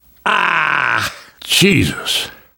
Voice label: reaction boomer vomit, namvet, survivor voice; Left 4 Dead 2; left4dead2 dlc2

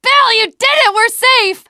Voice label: teengirl, voice; c6 dlc3 bill dies

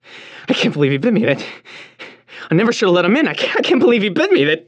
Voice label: radio initial broadcast

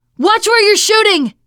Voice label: friendly fire, teengirl; player; survivor; voice

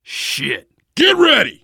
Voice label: incoming, voice, biker; Left 4 Dead 2; left4dead2 dlc3; survivor

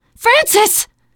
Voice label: hunter pounced, teen girl

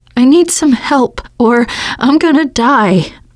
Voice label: going to die, teengirl, voice

